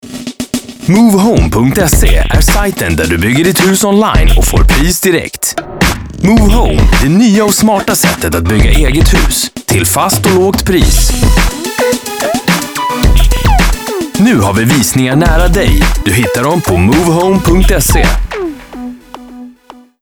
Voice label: radio Mix; MoveHome; 20s